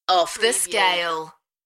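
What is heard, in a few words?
British female Off the scale; beanstalk audio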